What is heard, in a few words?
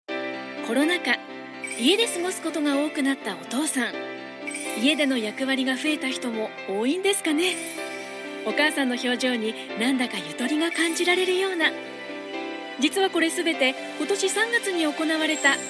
japanese tv shows